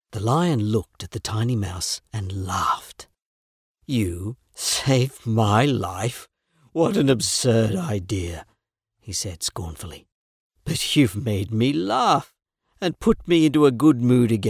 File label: male australian voice over character